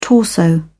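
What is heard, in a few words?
"torso", English, British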